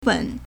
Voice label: "wen3", Chinese

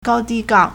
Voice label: "gao1 di1 gan4", Chinese

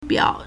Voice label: "biao3", Chinese

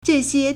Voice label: "zhe4xie1", Chinese

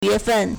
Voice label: "yue2fen4", Chinese

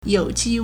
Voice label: "you3 ji1", Chinese

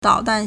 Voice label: "dao3 dan4", Chinese

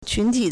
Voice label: "qun2 ti3", Chinese

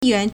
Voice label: "yuan2", Chinese

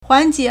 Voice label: "huǎn jiě", Chinese

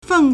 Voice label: "feng4", Chinese

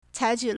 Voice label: "cai3qu3", Chinese

